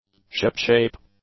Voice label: "shipshape", English; adjectives